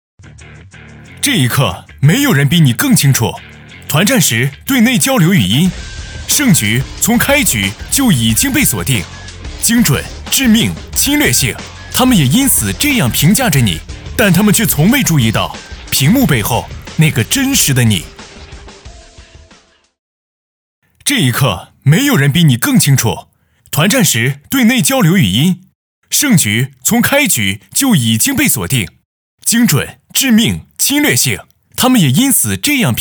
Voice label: 青春热血样音

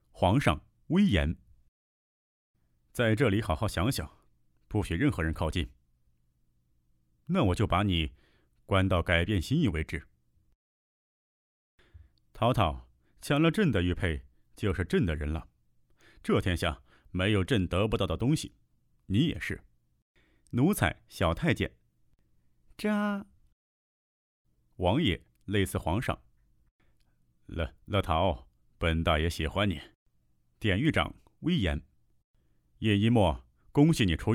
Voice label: 小说配音

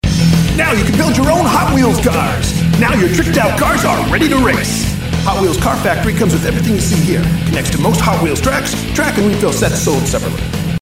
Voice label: 男美式广告商业

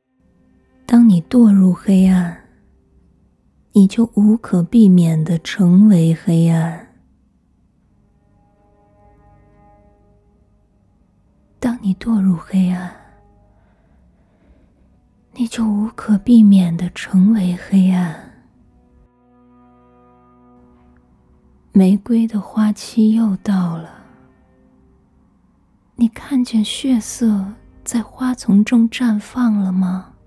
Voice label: 病娇

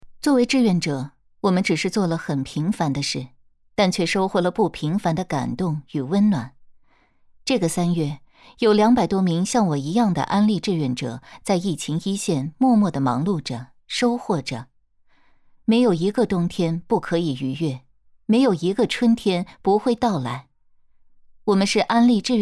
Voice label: 短视频旁白

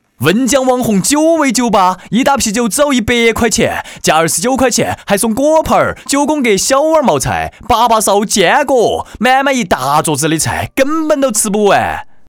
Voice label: 成都话酒吧开业

